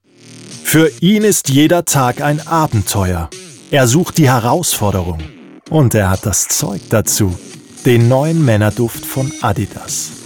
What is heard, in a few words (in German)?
Werbung mit Musik